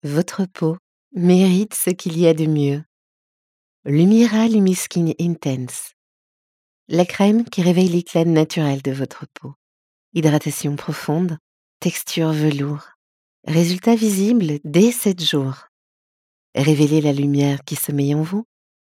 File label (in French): Commercial beaute